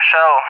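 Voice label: "Shell", English, RUSSIA; CallSign; DCS; Allied Flight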